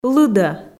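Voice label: "лыдӧ", Komi, female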